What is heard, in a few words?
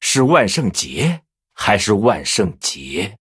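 Male, Chinese; Blood Elf; HAPPY HALLOWEEN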